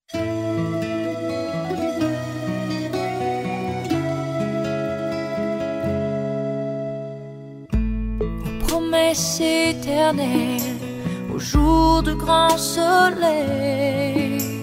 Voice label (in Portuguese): aniversario TIO FEM, telemensagem